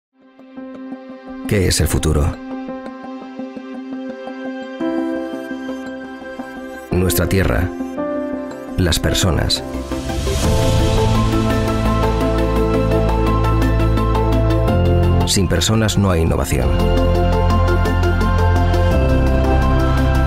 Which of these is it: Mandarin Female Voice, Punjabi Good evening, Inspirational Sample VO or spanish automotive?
spanish automotive